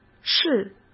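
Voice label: "室", Mandarin Chinese; hsk; chinese-flashcards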